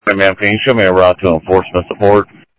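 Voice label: Sheriff Lake Patrol, scanner audio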